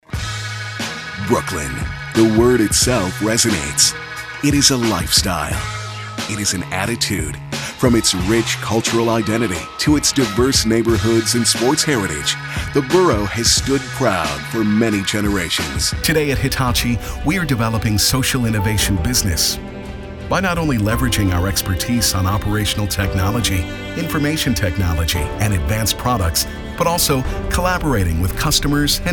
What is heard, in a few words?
Corporate Narration